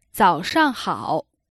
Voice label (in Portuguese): "bom dia" em mandarim